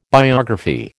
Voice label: "biography", American